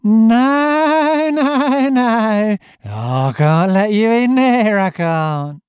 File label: Pirate ghoul; Genuine British Received pronunciation, cockney and Scottish